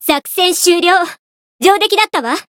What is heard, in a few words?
win jp